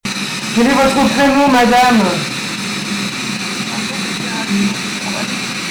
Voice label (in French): réduction bruit